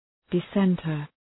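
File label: "dissenter", English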